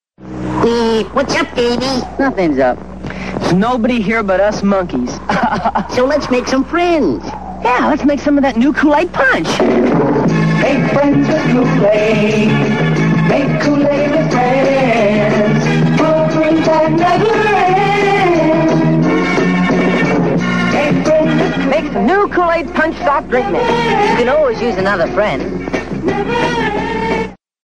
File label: Commercial; Kool-Aid; 1969